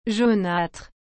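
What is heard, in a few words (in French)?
"jaunâtre", French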